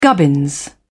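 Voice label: "gubbins", British English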